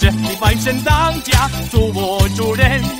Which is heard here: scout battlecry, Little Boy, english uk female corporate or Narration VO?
scout battlecry